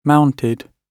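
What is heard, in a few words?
"mounted", British English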